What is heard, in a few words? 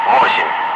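Russian speech, Ka-50 Wingman; Digits; DCS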